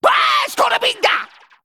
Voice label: Male Sporelok Attack